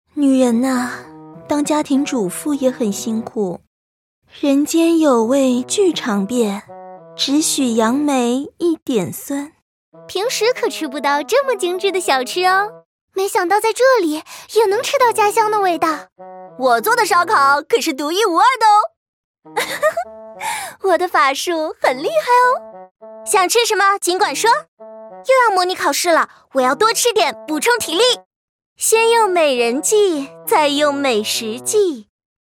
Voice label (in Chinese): 女 厨房